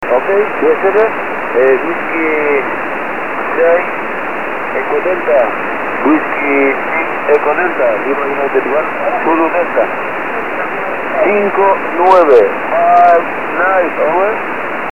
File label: 20 ssb